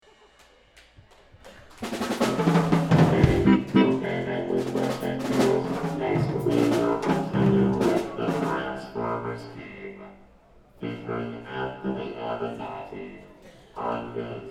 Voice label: banter; One World - December 2 2000